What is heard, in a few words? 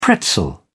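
"pretzel", British English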